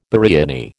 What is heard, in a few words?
"biriani", American